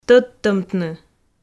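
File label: "тӧдтӧмтны", Komi, female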